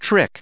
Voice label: "trick", us